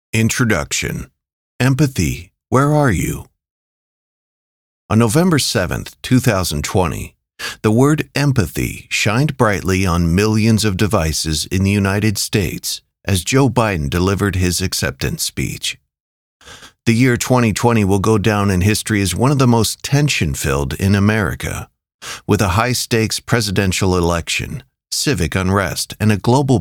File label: Audiobook Sample; Feel Something